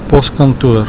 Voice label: "poskantoor", Afrikaans